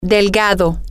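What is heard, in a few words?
female, spanish; skinny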